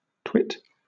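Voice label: "twit", British English; pronunciations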